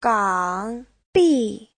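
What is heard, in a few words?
"gǎngbì", Chinese; Giáo trình Hán ngữ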